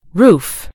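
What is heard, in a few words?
"roof", American English; gcompris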